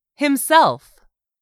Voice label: "HIMSELF", english; 3000 words